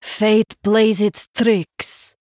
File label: Female Draenei, Emote Oops